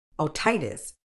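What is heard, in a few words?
"otitis", medical English, British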